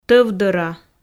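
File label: "тӧвдӧра", Komi, female; audio dict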